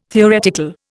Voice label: "theoretical", Indian